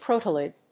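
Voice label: "crotalid", English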